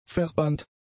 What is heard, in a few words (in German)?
"verbannt", German; adjectives